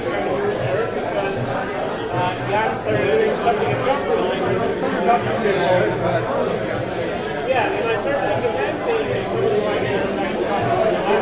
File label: bar talking; action-quake2